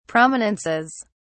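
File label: "prominences", British English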